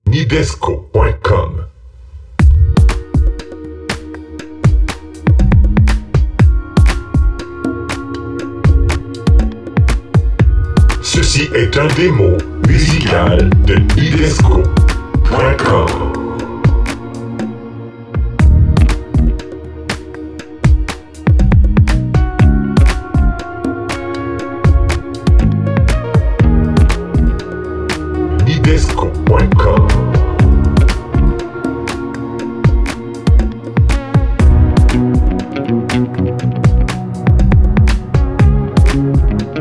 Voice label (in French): annonce radio